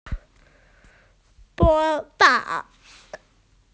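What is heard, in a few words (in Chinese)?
"mo2fang3", Chinese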